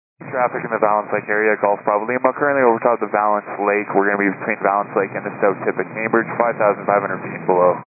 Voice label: 122.925 MHz; scanner radio